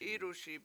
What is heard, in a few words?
"IduSi7", Washo; it is there now